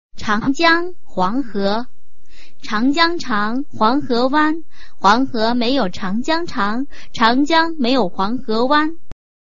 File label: chinese tongue twister